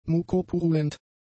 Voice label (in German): "mukopurulent", German; adjectives